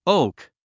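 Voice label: "oak", English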